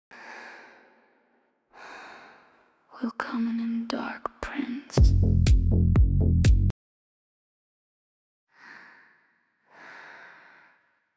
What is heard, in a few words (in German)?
wisper Willkommen im Dark Prince